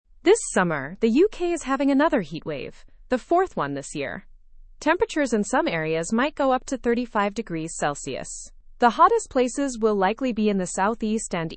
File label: learn english through news lower intermediate fast; uk heatwave brings high temperatures